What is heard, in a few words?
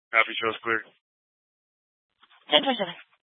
Fire Department Dispatch; Grand Junction